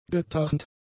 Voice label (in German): "getarnt", german; adjectives